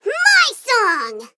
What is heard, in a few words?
Female Sprite Attack